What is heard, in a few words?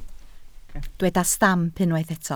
"stamp", Welsh